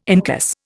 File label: "incus", Indian